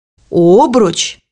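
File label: "hoop", Russian; bukvar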